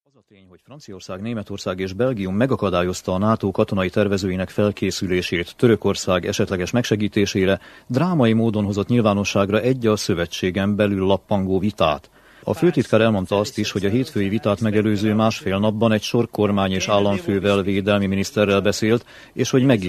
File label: Hungarian, Male, 30s-50s; Eastern European